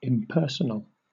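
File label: "impersonal", British English; pronunciations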